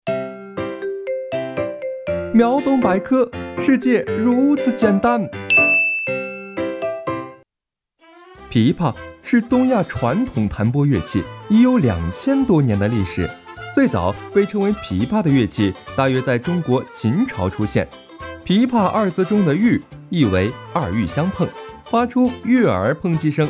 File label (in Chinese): 风格琵琶解说; 飞碟说风格琵琶解说